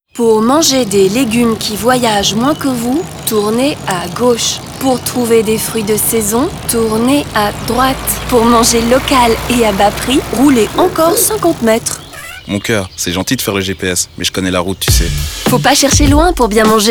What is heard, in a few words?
Radio; JCL